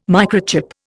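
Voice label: "microchip", English, Indian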